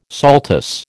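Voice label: "saltus", American English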